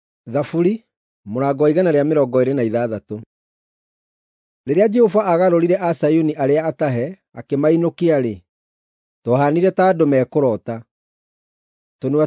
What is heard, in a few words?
psalms, gikuyu drama